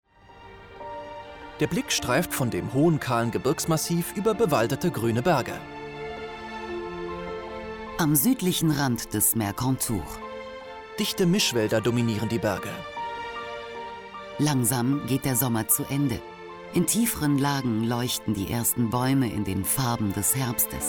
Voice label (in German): Audiodeskription de Doku; SWR; Mont Blanc